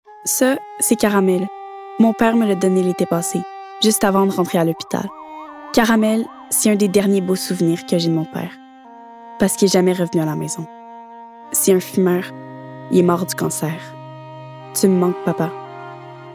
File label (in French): voix publicitaires; Semaine sans tabac